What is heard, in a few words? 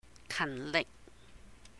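"kàhn lihk", Cantonese; diligent; 勤力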